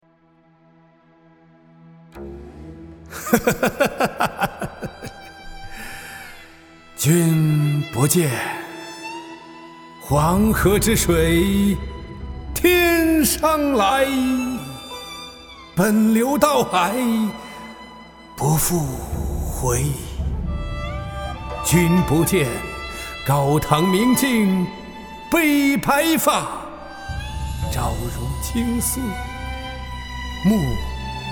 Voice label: 男 朗诵; 长安三万里; 将进酒

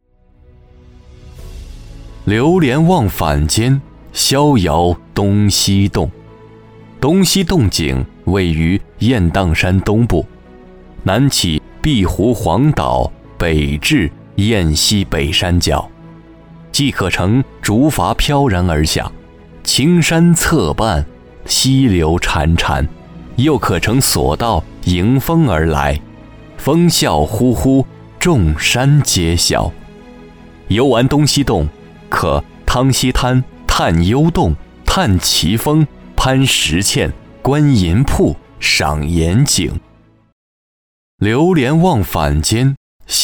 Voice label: 男 宣传景区

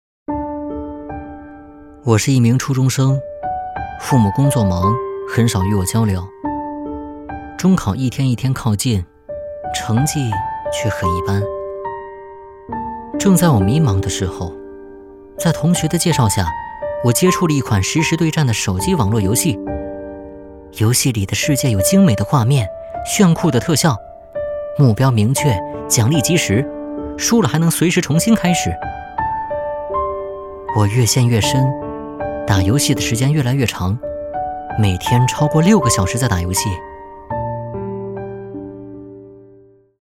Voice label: 男, 角色 初中生

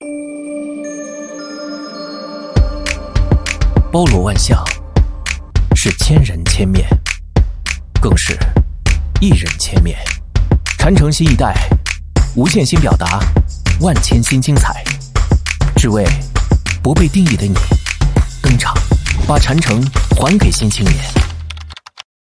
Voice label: 男 宣传片 年轻科技感; 禅城新青年

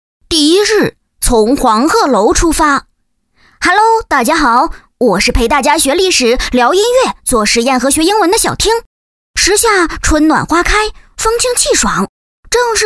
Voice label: 女 男童; 从黄鹤楼出发

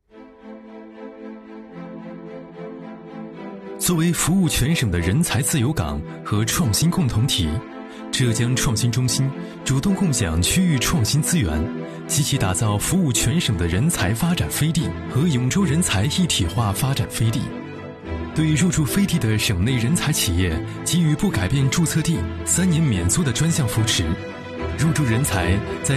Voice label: 男 宣传片; 浙江创新中心甬舟

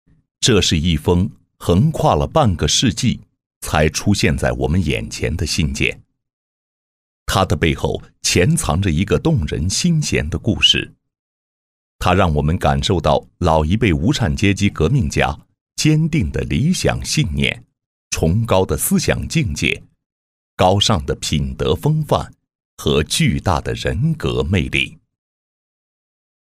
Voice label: 男 力量型纪录片; 邓颖超致潘仰山书信

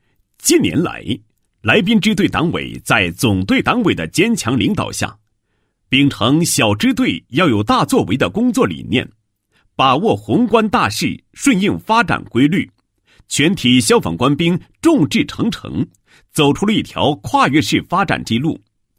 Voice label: 男 配音; 消防工作; 来宾市某消防支队党委工作汇报片

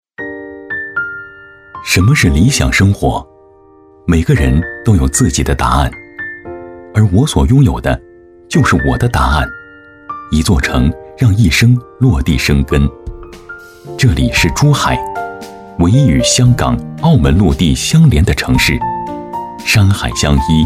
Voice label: 男 内心独白; 华发天茂

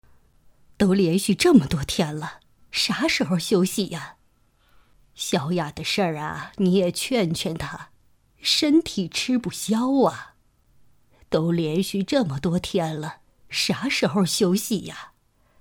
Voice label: 60岁角色; 都连续这么多天了

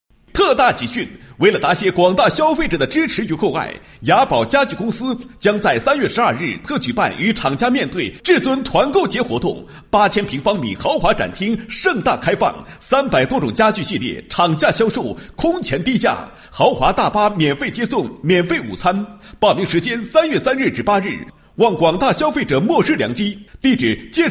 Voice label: 广告, 国语男声配音; 高音经济型; 特大喜讯

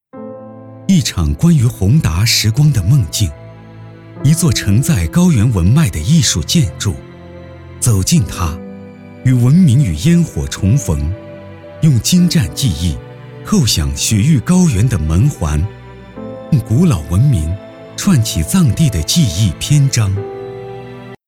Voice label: 男 纪录片; 西藏博物馆

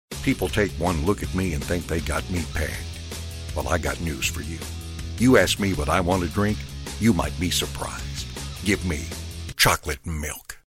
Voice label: 外籍英男 牛奶广告 低沉浑厚

